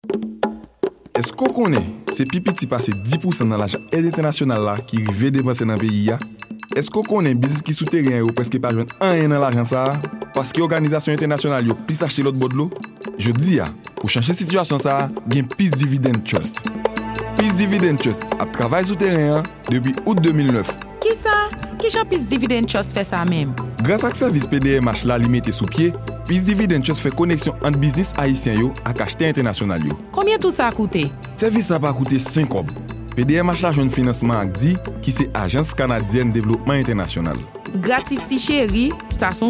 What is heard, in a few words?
spot radio